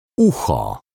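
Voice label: "ucho", Polish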